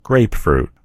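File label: "grapefruit", American English; gcompris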